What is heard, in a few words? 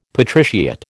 "patriciate", American